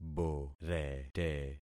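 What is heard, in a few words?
"borete", concatenated syllables; Affixing experiment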